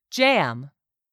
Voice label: "jam", English; 3000 words